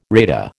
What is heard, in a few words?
"rata", American